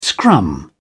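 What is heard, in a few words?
"scrum", English, British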